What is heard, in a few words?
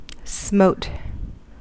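"smote", American English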